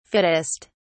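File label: "fittest", British English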